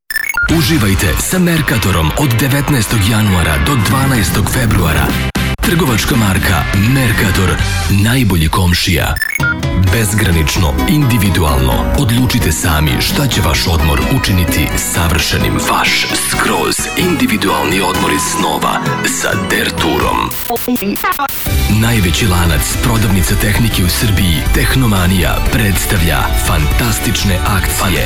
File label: voiceover